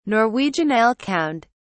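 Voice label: "norwegian elkhound", British English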